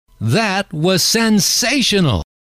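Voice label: that Was Sensational, male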